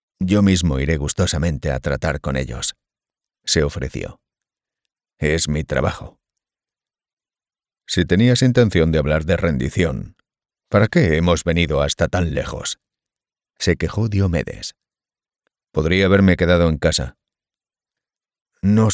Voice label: Audiobooks, deep, trustworthy, confident Male Voice; Audiolibro; La Cancion De Aquiles. History